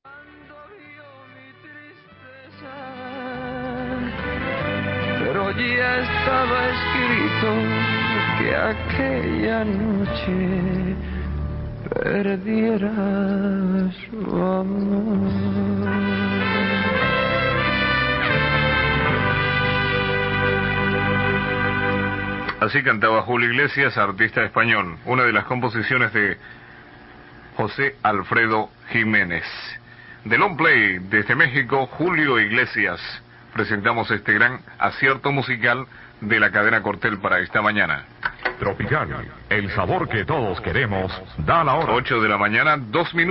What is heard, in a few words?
R Difusora del Ecuador Guayaquil 4765 kHz; CRE